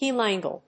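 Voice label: "heel angle", English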